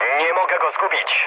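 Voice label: aircraft damaged, mood low, Polish speech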